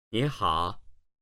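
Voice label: "ni3 hao3", Chinese, male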